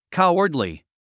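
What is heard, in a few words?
"cowardly", English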